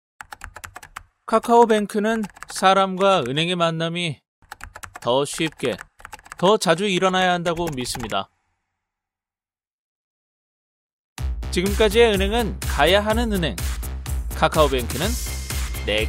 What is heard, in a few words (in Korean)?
홍보; KBS; 카카오뱅크